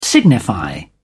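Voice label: "signify", English, British English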